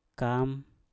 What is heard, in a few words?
"kam", Mpur; daging